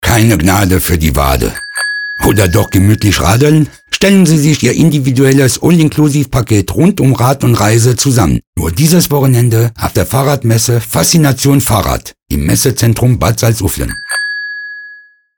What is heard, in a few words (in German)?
Radiospot; faszination fahr rad18